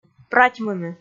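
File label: "пратьмыны", Komi, female; kpv; audio dict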